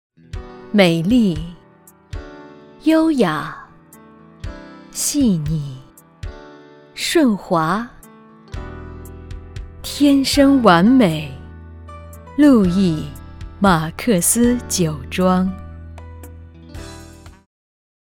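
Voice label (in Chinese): 广告酒庄; 路易酒庄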